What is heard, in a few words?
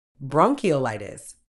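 "bronchiolitis", British English; ˌbrɒŋ.kɪ.əʊˈlaɪ.tɪs; brong-ki-oh-LIGH-tiss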